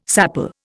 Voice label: "sapele", Indian